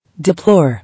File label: "Deplore", English